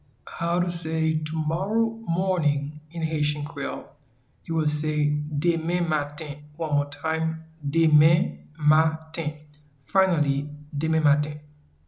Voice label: Tomorrow morning in Haitian Creole Demen maten audio translation